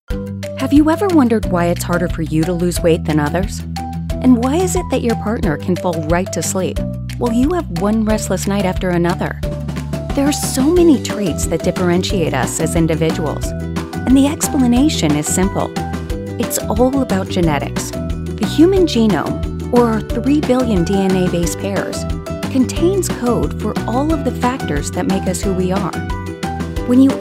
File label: Medical Explainer; Psomagen